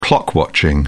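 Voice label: "clock watching", British English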